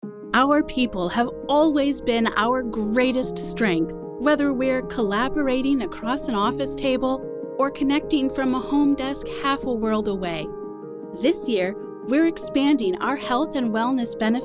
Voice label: Corporate